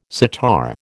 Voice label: "sitar", American